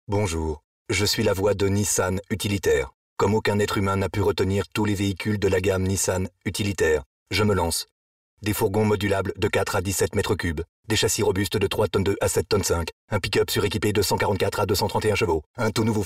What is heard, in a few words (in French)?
joue robot; Nissan